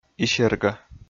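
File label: "исерга", Komi, male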